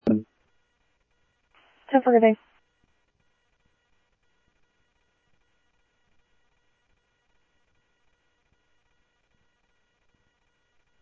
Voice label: Security, scanner audio